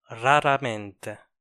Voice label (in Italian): "raramente", Italian